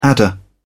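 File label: "adder", English, British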